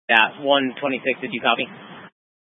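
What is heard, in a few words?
Incident Tac 1, Scanner audio recording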